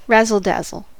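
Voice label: "razzle dazzle", American English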